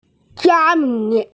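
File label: "xia1mi5", Mandarin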